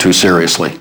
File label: LoFi Words, LoFi Vocals